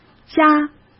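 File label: "家", Mandarin Chinese; hsk; chinese-flashcards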